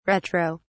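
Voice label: "retro", British English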